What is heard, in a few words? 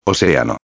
"oceano", espanhol